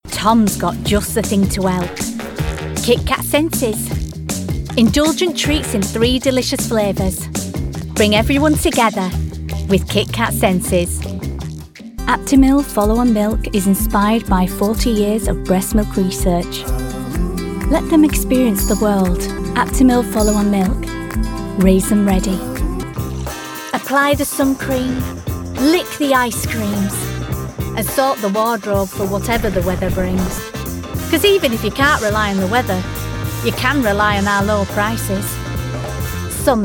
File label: Commercial